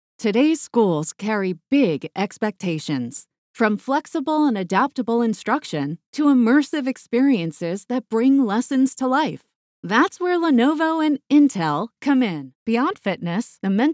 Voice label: Explainers Tutorials VO